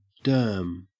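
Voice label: "derm", Australian English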